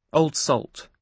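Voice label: "old salt", British English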